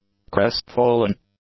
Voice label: "crestfallen", English; adjectives